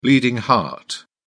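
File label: "bleeding heart", British English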